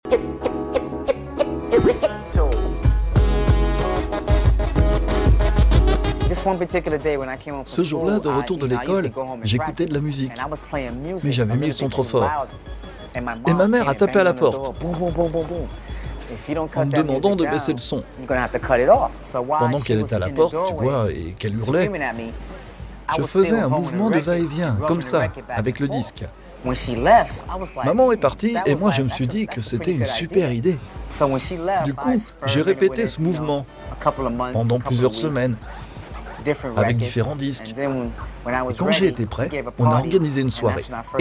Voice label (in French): Voice over; Démo Voice over; Naissance du scratch